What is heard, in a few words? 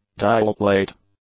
"dialplate", English